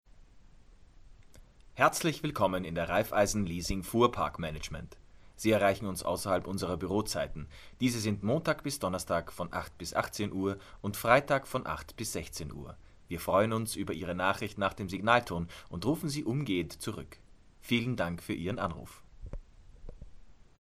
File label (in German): Telefon